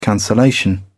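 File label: "cancellation", British English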